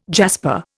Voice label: "jasper", Indian